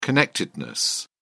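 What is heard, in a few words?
"connectedness", British English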